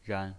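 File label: "ran", Mandarin Chinese, neutral tone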